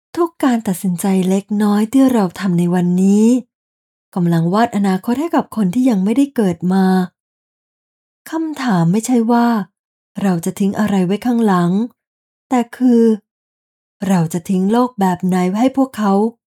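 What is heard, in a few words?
Narration Environment, Female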